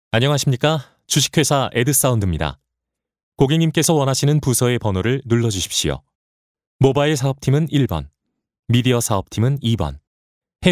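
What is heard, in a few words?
Korean male; ARS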